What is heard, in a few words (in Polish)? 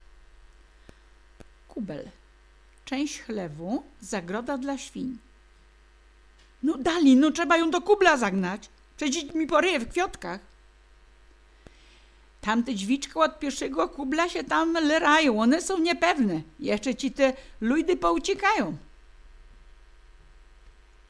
kubel, gwarowy